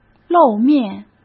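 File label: "露面", Mandarin Chinese; chinese-flashcards; hsk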